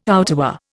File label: "outerwear", Indian